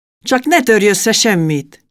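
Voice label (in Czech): maďarština žena, Hungarian female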